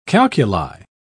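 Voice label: "calculi", English, British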